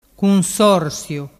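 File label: "cunsorsiu", Vernazzese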